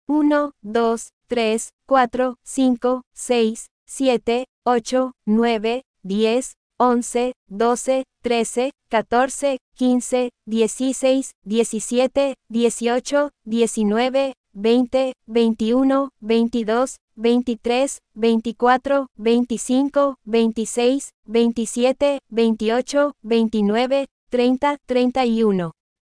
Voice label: Spanish numbers 1 to 31